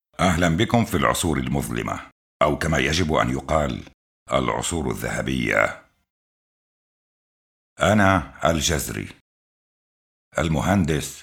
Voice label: arabic m narration; voice demos